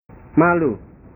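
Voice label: "malu", Indonesian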